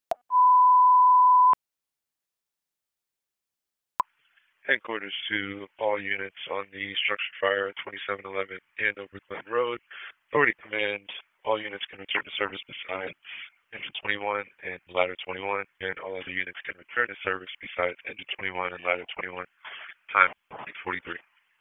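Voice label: Fire Department HQ Dispatch; RFD HQ DISP; wake p25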